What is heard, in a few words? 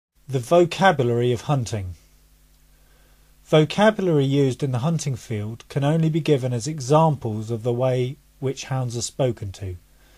vocabulary of hunting